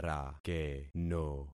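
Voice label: "rakeno", concatenated syllables; Affixing experiment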